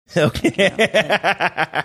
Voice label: saying okay laughing

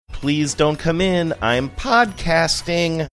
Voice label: sing songy; please dont come in im podcasting

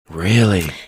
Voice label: drawn out really

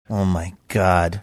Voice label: quietly saying oh my god

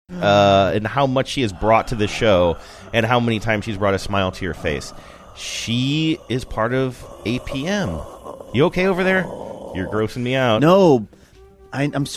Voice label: making groaning sounds while talking

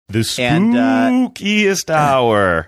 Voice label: saying the spookiest hour spooky

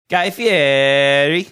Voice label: exaggerated manner; guy fieri

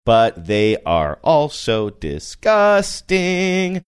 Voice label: saying but they are also disgusting sing songy

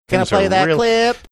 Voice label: saying can i play clip funny

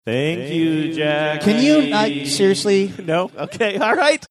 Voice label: continued to use echo reverb effect

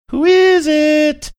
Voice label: saying who is it sing songy

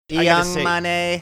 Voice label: saying young money funny voice

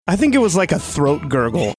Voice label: I think it was like a throat gurgle

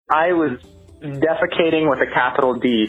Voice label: voicemail

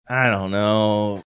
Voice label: drawn out i dont know

